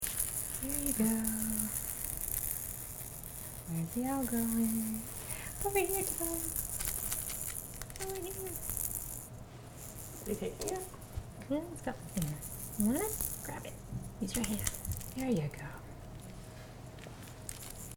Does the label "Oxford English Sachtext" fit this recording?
No